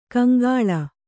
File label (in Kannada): "ಕೌಂಕುಳ್", Kannada